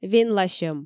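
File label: "венласьӧм", Komi, female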